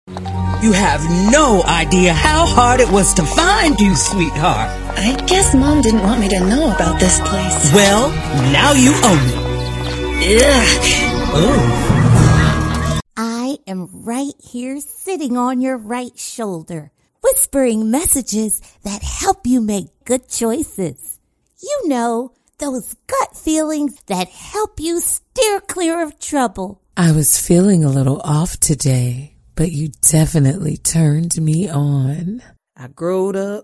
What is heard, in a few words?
african american animation